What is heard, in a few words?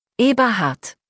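"Eberhard", German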